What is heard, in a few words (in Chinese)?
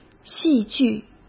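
"戏剧" Mandarin Chinese; chinese-flashcards; hsk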